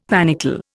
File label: "panicle", Indian; Dictionary